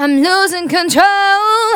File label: Phrase Female; Vengeance Samples; Phrases